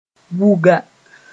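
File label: "wuga", Mooré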